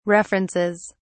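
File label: "references", English, uk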